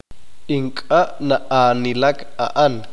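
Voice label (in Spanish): él no corre, Spanish, male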